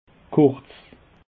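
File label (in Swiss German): "kurz", Alsatian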